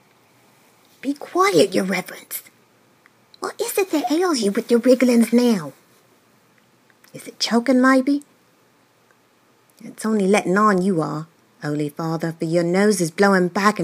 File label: Cockney